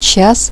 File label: "Hour", speak